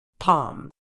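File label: "palm", medical English, British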